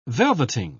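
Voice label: "velveting", British English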